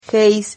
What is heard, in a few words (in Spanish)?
"geis", Silabas